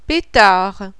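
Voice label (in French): "PETARD", French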